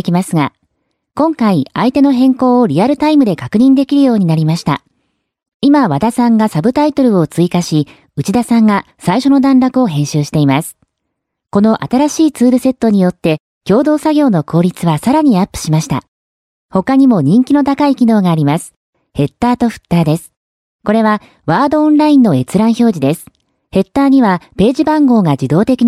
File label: Japanese Female MS Office Training